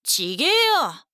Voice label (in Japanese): 「ちげぇよ」, 女の子, オラオラ系少女; 肯定・否定